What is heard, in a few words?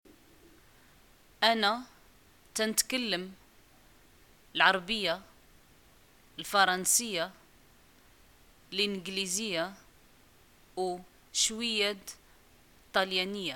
i speak langs, arabic